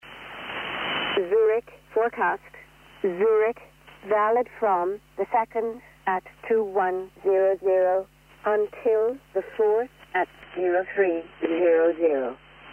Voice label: Shannon Volmet USB; 5505khz